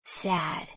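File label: "sad", English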